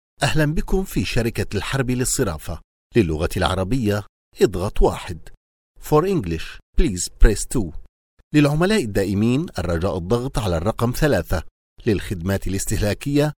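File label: arabic syrian male interactive voice response; voice demo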